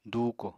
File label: "dùuko", Apatani